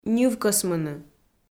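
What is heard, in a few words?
"ньывкӧсмыны", Komi, female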